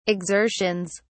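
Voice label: "exertions", British English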